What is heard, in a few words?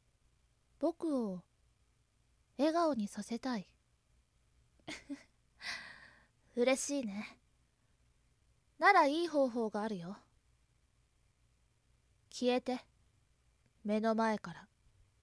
cool boy, voice